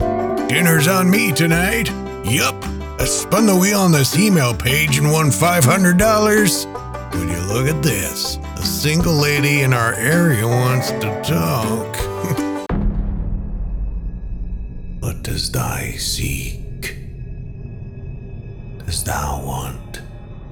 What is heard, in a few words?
Character